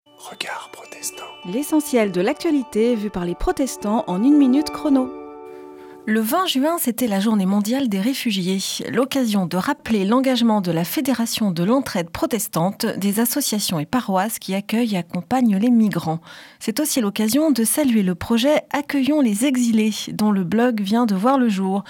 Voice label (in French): Spot radio; Regards protestants